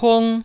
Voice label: "pung1", taiwanese

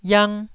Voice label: "iang1", taiwanese syllables

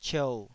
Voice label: "ciou3", Taiwanese

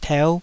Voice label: "teu5", Taiwanese

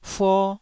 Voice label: "fuo1", Taiwanese

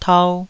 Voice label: "tau2", Taiwanese

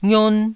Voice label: "ngion1", taiwanese syllables

